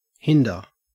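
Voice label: "hinder", Australian English; pronunciations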